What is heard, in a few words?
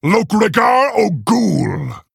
Male Orc MIRROR GREETINGS